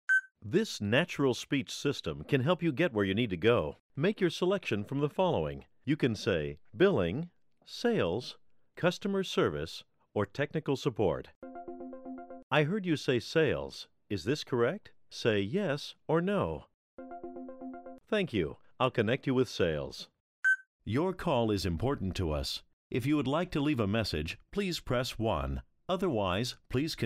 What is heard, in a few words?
Phone VRU